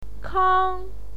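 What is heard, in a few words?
"kang", Mandarin Chinese, first tone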